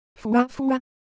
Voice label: Japanese Texture, Ideo Phones